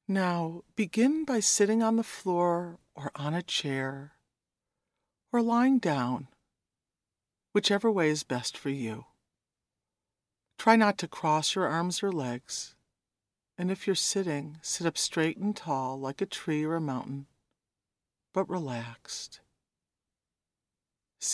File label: a childrens meditation